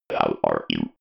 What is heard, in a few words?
robot voice how are you